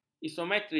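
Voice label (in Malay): "isometri", Malay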